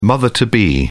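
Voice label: "mother to be", British English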